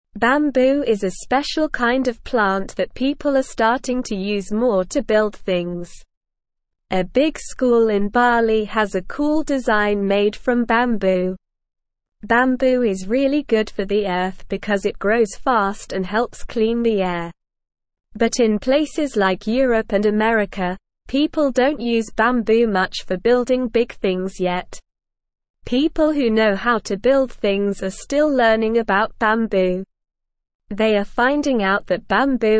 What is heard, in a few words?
English Lower Intermediate SLOW Reading; English Newsroom; Bamboo A Strong and Eco Friendly Building Material